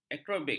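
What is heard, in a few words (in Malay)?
"aerobik", Malay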